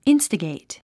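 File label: "instigate", English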